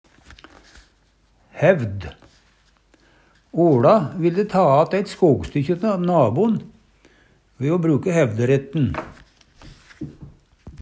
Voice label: "hævd", Numedalsmål; OLa vilde ta att eit skogstykkje tå nabo'n ve o bruke hevderetten

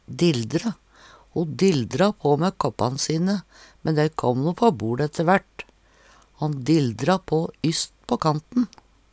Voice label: "dilldre", Numedalsmål

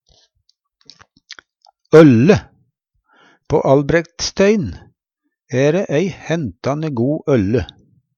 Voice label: "ølle", Numedalsmål

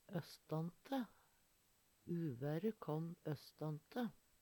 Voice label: ostante, Numedalsmål; østante; austfrå; Uvære kåm østante.; Preposisjon

